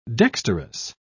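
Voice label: "dexterous", British English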